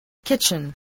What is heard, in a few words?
"kitchen", English